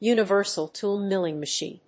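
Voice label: "Universal tool milling machine", English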